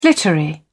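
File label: "glittery", British English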